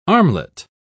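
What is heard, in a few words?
"armlet", British English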